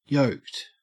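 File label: "yoked", Australian English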